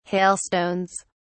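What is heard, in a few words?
"hailstones", British English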